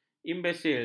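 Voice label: "imbesil", Malay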